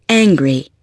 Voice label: angry